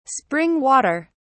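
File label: "spring water", English, British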